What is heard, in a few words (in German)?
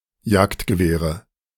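"Jagdgewehre", German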